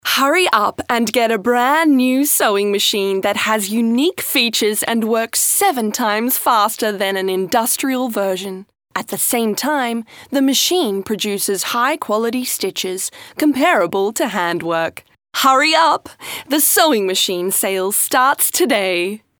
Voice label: ENG female Positive

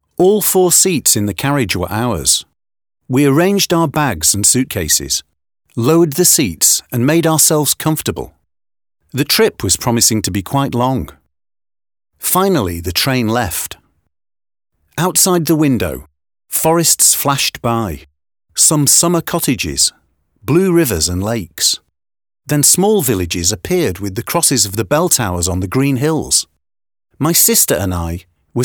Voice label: ENG male, Casual

